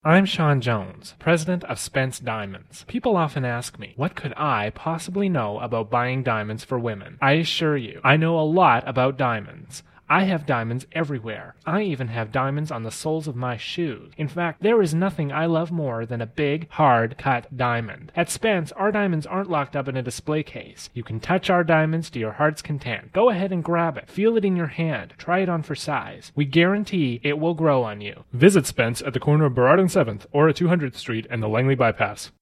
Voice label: Commercial; Spence Diamonds